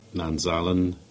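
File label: "Nanstallon" Cornish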